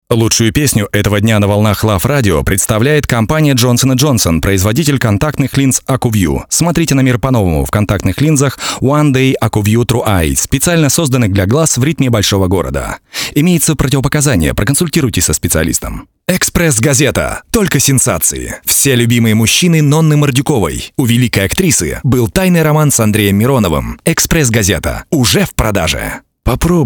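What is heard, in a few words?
Russian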